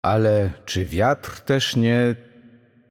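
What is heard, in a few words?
Game Intro, Polish; bloom minigame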